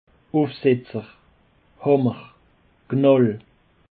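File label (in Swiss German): "ufsetzer", Bas Rhin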